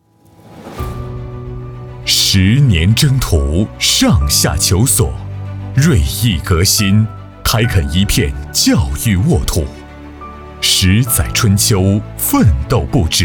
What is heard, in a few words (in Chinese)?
男692 专题; 育莲品英才 绘教育长卷